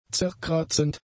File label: "zerkratzend", German; adjectives